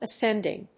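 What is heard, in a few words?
"ascending", English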